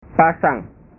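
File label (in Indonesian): "pasang", Indonesian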